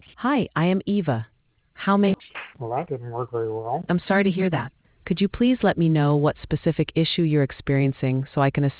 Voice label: conversation